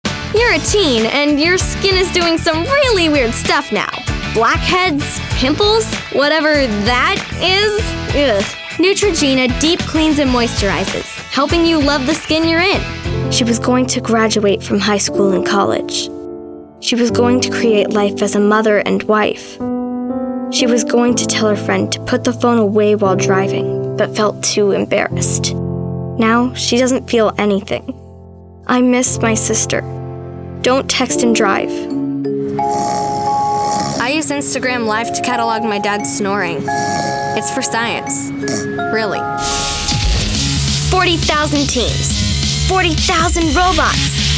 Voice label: Commercial VO